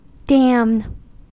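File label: "damn", US English; pronunciations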